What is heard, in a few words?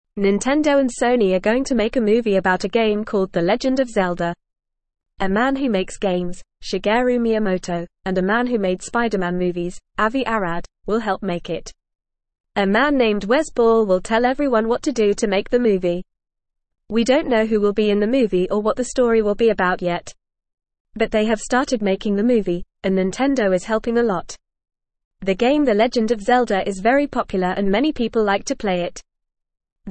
English Newsroom Beginner FAST Reading; Nintendo and Sony Making The Legend of Zelda Movie